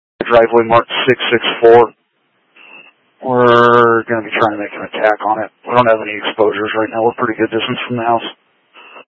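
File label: Incident Tac 1, Scanner audio recording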